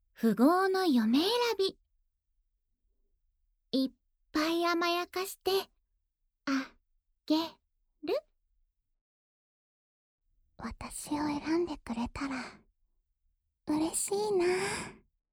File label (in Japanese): タイトルコール, ASMR